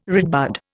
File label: "redbud", Indian